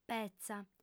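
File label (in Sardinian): "petza", female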